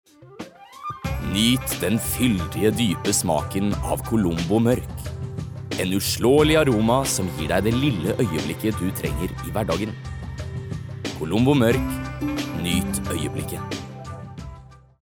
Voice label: no soft sell